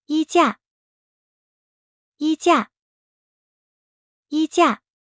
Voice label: "衣架", Mandarin; Traditional Chinese

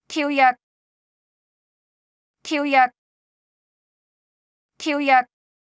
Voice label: 跳躍, Cantonese; Traditional Chinese

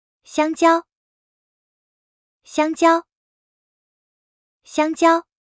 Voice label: "香蕉", Mandarin; Traditional Chinese

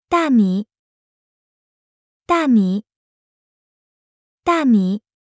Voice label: "大米", Mandarin; Traditional Chinese

